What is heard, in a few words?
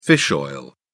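"fish oil", British English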